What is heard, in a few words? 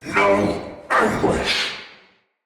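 Attack Heavy, Battle Barks, Dialog, English; Nimrod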